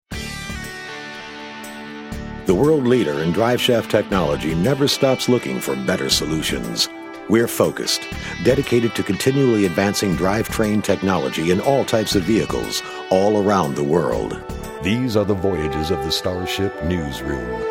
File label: Men Narration